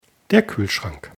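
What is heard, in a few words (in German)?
"kuehlschrank", German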